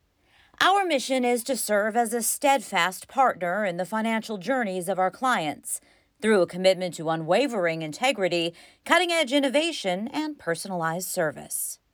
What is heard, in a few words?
Mission Statement